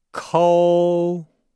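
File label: "kou", Chinese; Nihao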